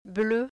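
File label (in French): "bleu", French; ø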